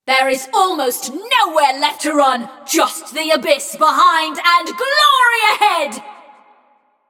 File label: Scrap Run Entire Arena Nearly Gone, Announcer